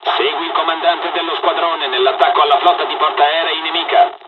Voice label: fly, dialogs italian; midway us; War Thunder